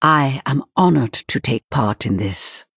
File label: Female Night Elf Picked